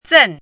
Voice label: "zen4", Chinese; chinese-voice